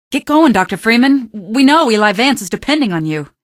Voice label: citizen, male; Garry's Mod; hl2; odessa